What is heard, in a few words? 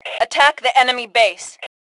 Attack Base, Female; T2 Female 01